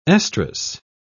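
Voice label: "estrous", British English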